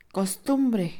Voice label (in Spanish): costumbre, Mixe, Mujer; INALI